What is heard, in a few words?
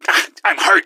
im hurt, gasmask; scmod